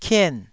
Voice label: "kien4", taiwanese syllable

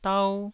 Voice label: "dau1", Taiwanese syllable

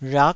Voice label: "rhak9", taiwanese

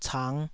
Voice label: "cang5", taiwanese

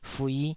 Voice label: "fui5", taiwanese syllables

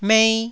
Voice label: "mei1", Taiwanese

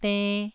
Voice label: "denn1", Taiwanese syllable

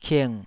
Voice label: "king3", taiwanese syllable

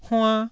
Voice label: "huann1", Taiwanese syllable

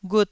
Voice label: "ghut1", Taiwanese syllable

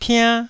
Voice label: "piann1", Taiwanese

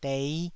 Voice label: "dei5", Taiwanese

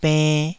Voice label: "benn2", taiwanese

